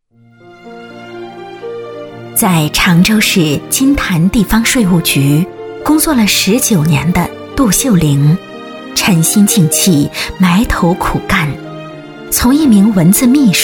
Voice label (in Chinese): 女 专题 人物介绍